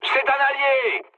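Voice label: French player friendly fire mood med; War Thunder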